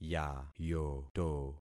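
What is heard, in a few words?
"yayoto", concatenated syllables; Affixing experiment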